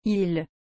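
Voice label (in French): "ile", French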